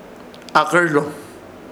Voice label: "AHKƗR", Ishir Chamacoco; sentar